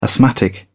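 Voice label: "asthmatic", British English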